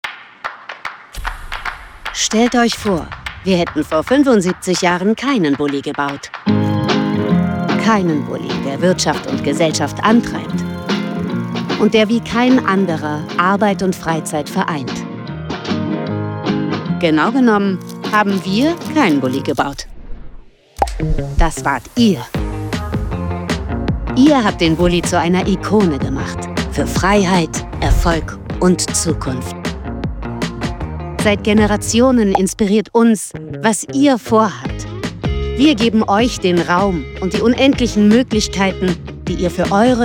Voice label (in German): Sprecherin; VWN 75YRS; warm & freudig; VW- Kampagne 75 Jahre Bulli